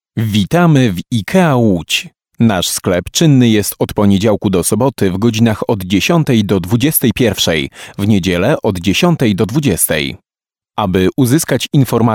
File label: ivr